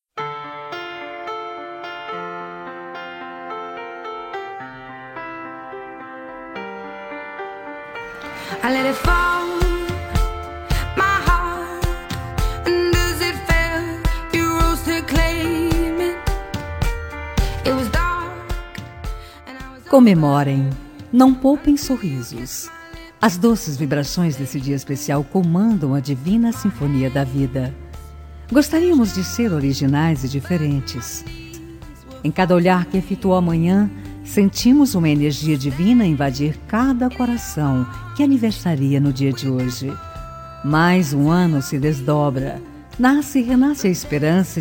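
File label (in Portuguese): aniversario varios aniversariantes feminino, telemensagem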